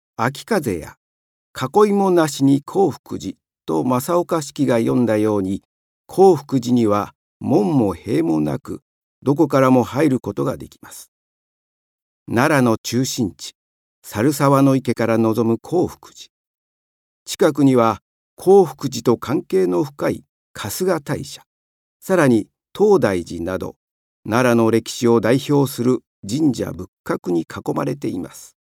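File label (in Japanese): 紀行番組, ボイスサンプル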